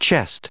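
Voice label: "chest", English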